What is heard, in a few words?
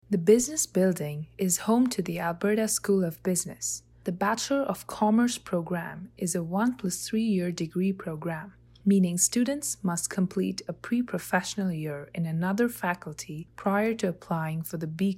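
English; Business Building; NSO Tour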